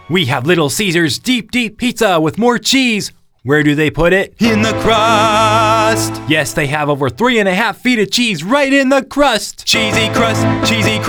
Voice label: Commercial; Little Caesars